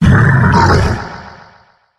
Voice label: Molten Bowser Growl; Skylanders